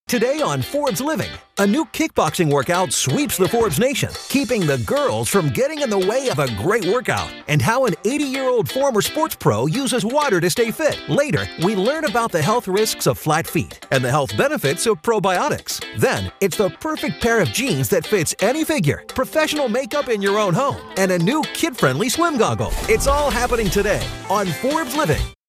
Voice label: english american tv shows

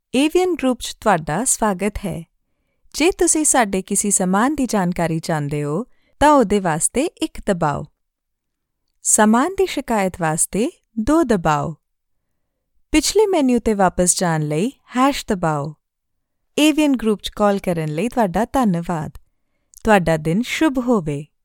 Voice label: punjabi ivr

